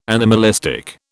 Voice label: "anomalistic", British

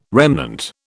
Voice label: "remnant", British

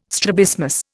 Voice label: "strabismus", Indian

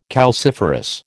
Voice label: "calciferous", American

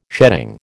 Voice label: "sheading", American

